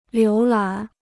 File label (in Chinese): "浏览", Chinese